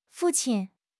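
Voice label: "fu4 qin1", Chinese; HSK4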